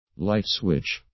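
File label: "light switch", English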